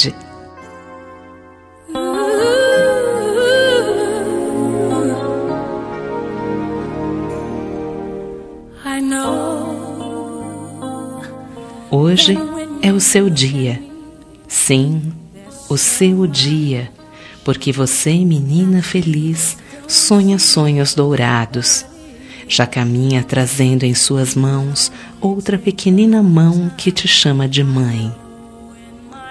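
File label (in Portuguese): NASCIMENTO MENSAGEM PARA MAE JOVEM FEMININO, Telemensagens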